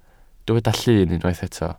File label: "llun", Welsh